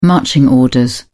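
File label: "marching orders", English, British English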